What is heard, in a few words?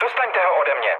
aircraft damaged, speech cze, mood low